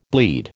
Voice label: "bleed", American

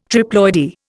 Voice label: "triploidy", Indian

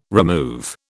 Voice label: "remove", British

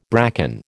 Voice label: "bracken", American

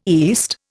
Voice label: "east", Indian

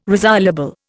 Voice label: "resoluble", Indian

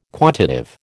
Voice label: "quantitive", American

